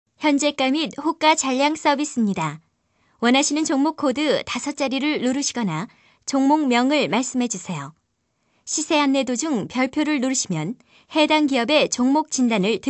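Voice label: Korean, female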